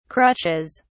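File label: "crutches", British English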